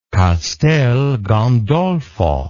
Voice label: "castel gandolfo", English

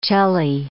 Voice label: "celli", English

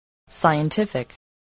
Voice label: "scientific", english spelling

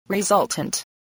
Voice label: "resultant", English

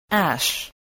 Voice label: "ash", English

